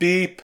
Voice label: Voices urgent; gamerip; the looker

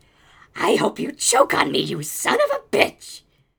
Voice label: Male Begging

